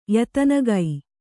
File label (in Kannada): "ಯತನಗೈ", Kannada